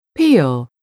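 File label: "peel", English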